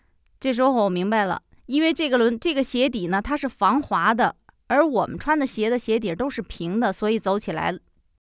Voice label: chinese spon